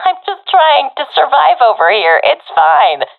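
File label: scared, professional female; Counter Strike Model Pack; CS:GO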